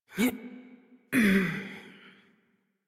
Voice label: Horse Dismount Adult; Warframe; Duviri